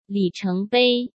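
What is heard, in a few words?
"里程碑", Mandarin Chinese; hsk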